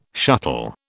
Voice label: "shuttle", British

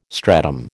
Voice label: "stratum", American

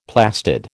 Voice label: "plastid", American

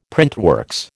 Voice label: "printworks", American

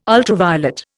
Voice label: "ultraviolet", Indian

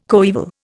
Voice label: "coeval", Indian

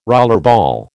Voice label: "rollerball", American

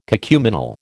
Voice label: "cacuminal", American

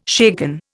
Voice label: "shaken", Indian

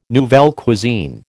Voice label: "nouvelle cuisine", American

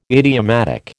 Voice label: "idiomatic", American

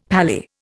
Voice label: "pally", Indian; Dictionary

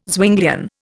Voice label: "Zwinglian", Indian

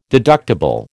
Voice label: "deductible", American

